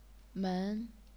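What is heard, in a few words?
"men", second tone, chinese pinyin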